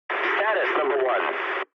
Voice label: eng chat, speech eng